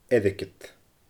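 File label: "airgead", Scottish Gaelic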